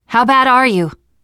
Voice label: revive friend, teengirl

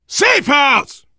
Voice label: safe spot ahead, voice, biker; Left 4 Dead 2; left4dead2 dlc3; survivor

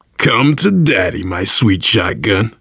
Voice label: take auto shotgun, voice, biker; Left 4 Dead 2; left4dead2 dlc3; survivor

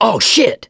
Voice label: reaction negative, survivor voice mechanic

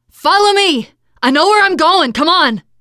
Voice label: follow me, teengirl, voice

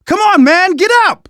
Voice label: revive friend loud, survivor voice; Left 4 Dead 2; left4dead2 dlc3

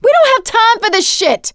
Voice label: reaction negative, survivor voice; producer

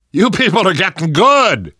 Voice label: hurrah, survivor voice; Left 4 Dead 2; left4dead2 dlc3

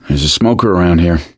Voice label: heard smoker, survivor voice; gambler

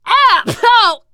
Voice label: boomer reaction, teengirl; player; survivor; voice

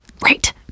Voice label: reaction negative special, teen girl

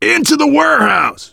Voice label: directional, voice, biker; Left 4 Dead 2; left4dead2 dlc2; survivor

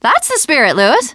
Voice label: second saferoom, teengirl, voice

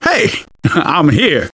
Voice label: scenario join, survivor voice; manager; Left 4 Dead 2; left4dead2 dlc2

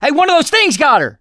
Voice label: hunter pounced, survivor voice; mechanic; producer

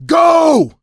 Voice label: emphatic go; survivor; coach

